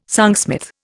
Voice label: "songsmith", Indian

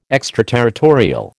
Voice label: "extraterritorial", American

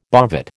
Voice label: "bovate", American

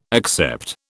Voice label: "accept", British

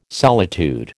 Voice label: "solitude", American

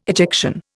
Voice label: "ejection", Indian

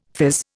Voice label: "phiz", Indian; Dictionary

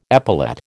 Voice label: "epaulette", American

